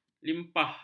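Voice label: "limpah", Malay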